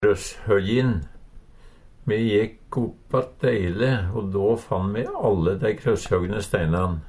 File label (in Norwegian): krosshogge, Numedalsmål